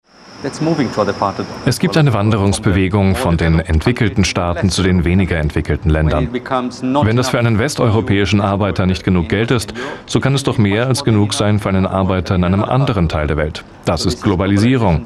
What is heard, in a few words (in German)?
voiceover